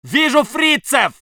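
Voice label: voice soviet infantry; Red Orchestra 41-45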